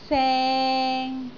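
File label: "seng3", Cantonese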